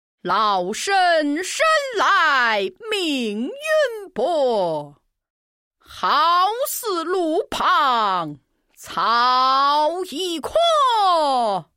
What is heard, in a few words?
Female Lao Dan Dialogue Narration Lyrics; Long; COPO